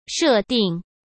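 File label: "设定", Mandarin Chinese; chinese-flashcards; hsk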